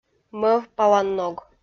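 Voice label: "мӧвпаланног", Komi-Zyrian, female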